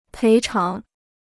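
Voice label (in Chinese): "赔偿", Chinese; péi cháng; ชดเชย; ทดแทน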